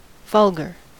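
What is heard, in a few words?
"vulgar", US English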